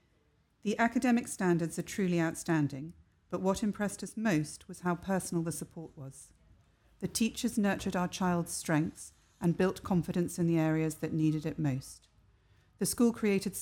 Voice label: Parent Proud speech